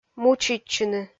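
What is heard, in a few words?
"мучитчыны", Komi, female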